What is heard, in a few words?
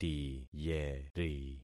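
"tiyeri", concatenated syllables; Affixing experiment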